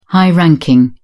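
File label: "high-ranking", English, British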